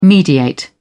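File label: "mediate", English, British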